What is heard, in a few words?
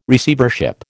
"Receivership", American